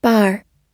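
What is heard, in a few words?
"bar", American English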